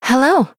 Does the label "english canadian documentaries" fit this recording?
No